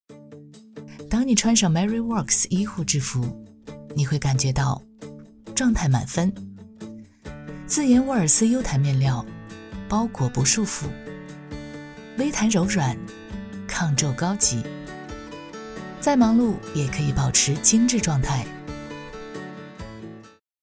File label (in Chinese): 女 TVC 医护制服